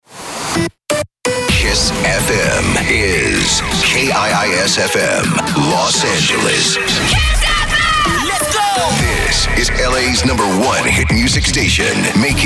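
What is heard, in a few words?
radio imaging men contemporary hit radio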